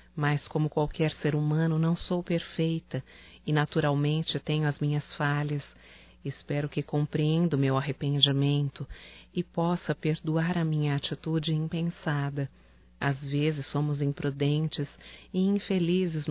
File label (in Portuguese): DESCULPAS FILHA (F); Telemensagens 2017